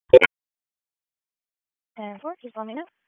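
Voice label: Police Southwest District Dispatch, p25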